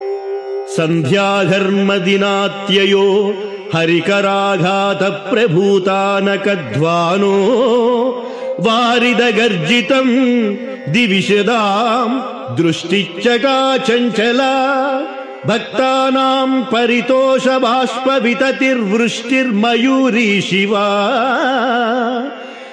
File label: Sanskrit; Sri Adi Sankaracharyas Sivananda Lahari; Adi Shankaracharya; श्रीशिवानन्दलहरी ५४